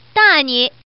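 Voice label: Woman, "beat you"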